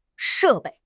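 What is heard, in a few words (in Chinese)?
"she4 bei4", Chinese; 汉语口语新时代-初级下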